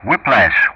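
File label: "Whiplash", English; Ka-50; Callsign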